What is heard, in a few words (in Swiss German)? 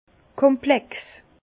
"komplex", Bas Rhin; vin; complexe